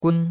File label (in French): "gun1", chinois; Cours-de-Chinois